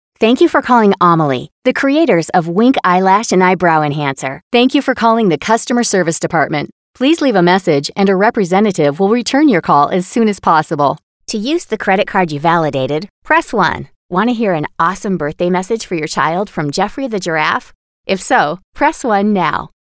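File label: English US IVR On Hold Voicemail Greetings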